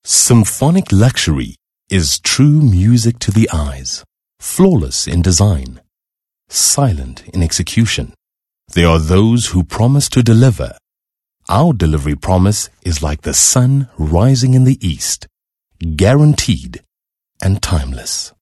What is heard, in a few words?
English Soft Sell